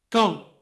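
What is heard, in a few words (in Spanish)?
Voice Acting, Spanish, male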